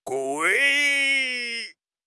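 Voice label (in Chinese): "跪", Chinese; HSK; HSK6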